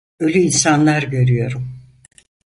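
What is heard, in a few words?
"olu insanlar goruyorum", Turkish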